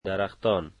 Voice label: trees, dari